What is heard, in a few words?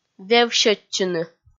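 "вевсьӧдчыны", Komi, female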